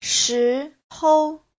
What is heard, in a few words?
"shi2hou5", Chinese